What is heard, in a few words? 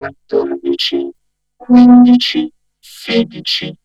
Vocode, Vocal